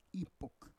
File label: "ipok", Roon